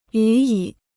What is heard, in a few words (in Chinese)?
"予以", Chinese